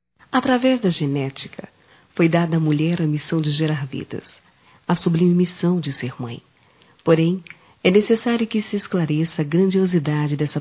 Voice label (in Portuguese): Madrasta-f; Dia das Maes; Telemensagens 2017